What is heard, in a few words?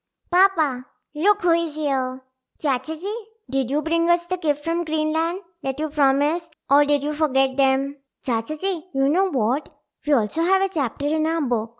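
kids English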